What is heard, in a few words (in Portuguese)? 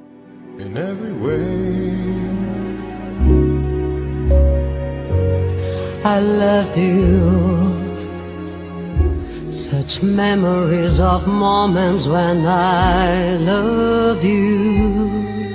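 VAI SER FÁCIL, Masculino; Telemensagens; Dia Dos namorados; Telemensagens 2017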